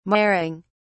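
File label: "marring", British English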